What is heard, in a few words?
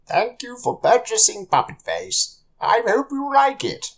Oldman Thank You; HauntedBloodlines; PuppetFace